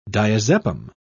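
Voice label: "diazepam", British English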